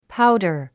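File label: "powder", English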